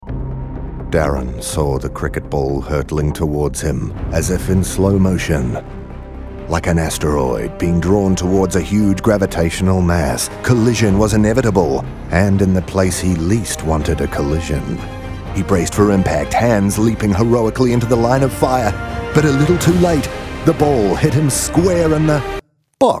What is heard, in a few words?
seatbelt radio